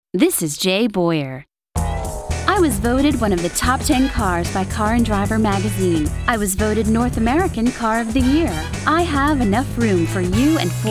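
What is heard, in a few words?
female Commercial; voiceover womens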